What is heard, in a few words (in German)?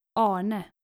"arne", skandinavische jungennamen